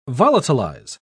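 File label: "volatilize", British English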